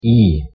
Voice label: "ee", Scots

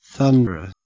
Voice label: "thunra", Proto Germanic

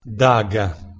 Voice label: "daga", Proto-Germanic

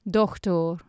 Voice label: "dohtor", Anglo Saxon

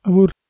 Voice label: "awur", Balochi

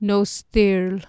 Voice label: "nosthyrl", Anglo-Saxon

